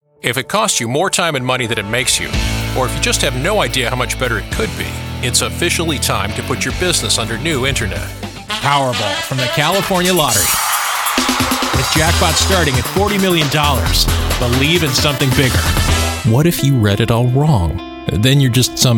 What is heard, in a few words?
Commercial Male